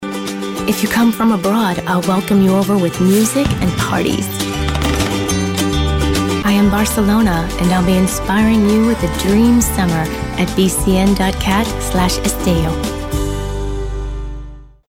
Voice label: female american english comercial